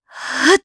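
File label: Casting, Japanese; kingsraid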